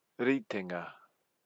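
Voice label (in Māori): "ritenga", Māori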